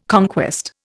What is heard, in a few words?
"conquest", Indian